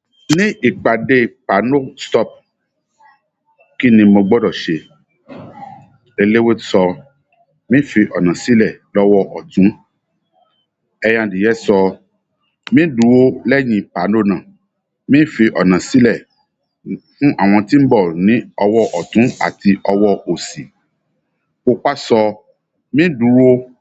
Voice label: YORUBA